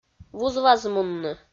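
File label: "вуз-вазмунны", Komi, female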